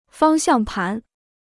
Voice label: "方向盘", Chinese

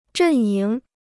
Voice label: "阵营", Chinese

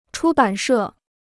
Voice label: "出版社", Chinese

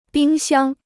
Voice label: "冰箱", Chinese

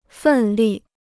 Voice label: "奋力", Chinese

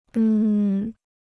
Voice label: "嗯", Chinese

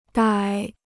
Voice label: "逮", Chinese

